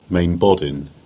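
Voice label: "Bawden Rocks", Cornish; place-names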